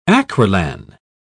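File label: "acrilan", British English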